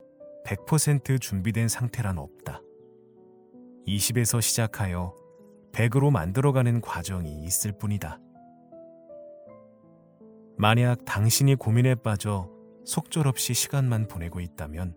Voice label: 낭독 내레이션

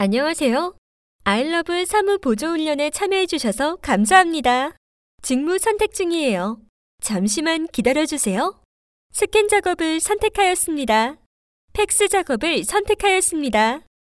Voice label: 친절한 ARS

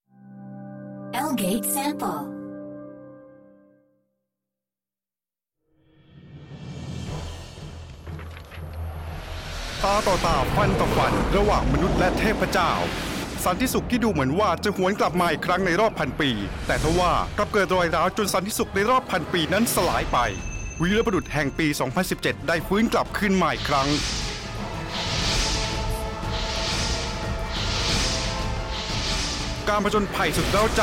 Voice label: 태국 남 강한 톤; 라그나로크-영웅의-길

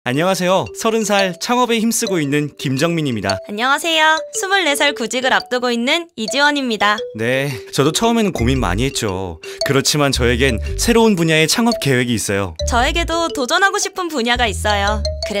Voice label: 라디오 광고 박람회 광고

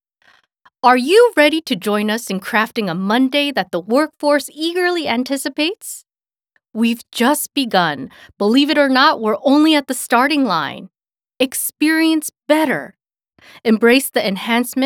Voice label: 영어 밝은

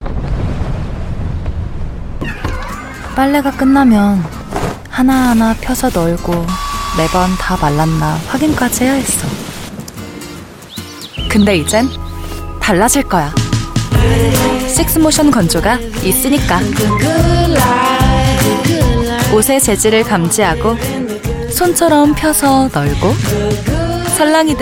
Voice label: 설레는 기분좋은; LG트롬 건조기

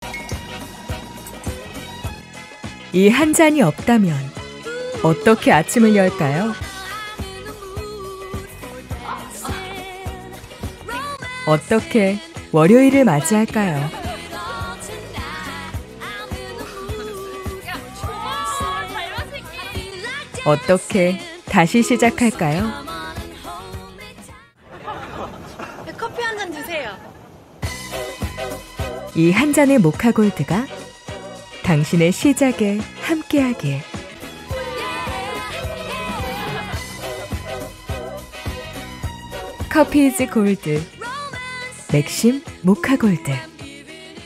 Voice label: 따뜻하고 편안한 20대

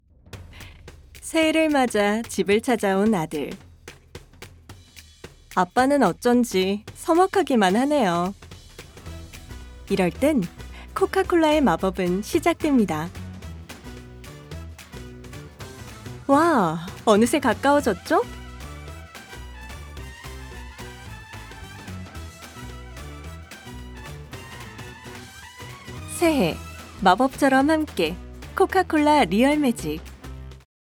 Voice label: 차분한; 코카콜라

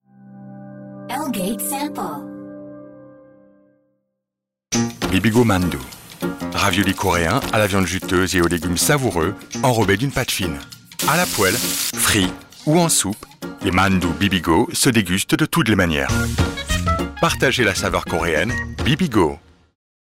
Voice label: 프랑스 남 기본톤 광고 멘트; 비비고

